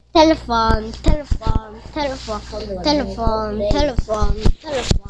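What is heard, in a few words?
"telephone", spoken word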